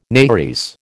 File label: nares, American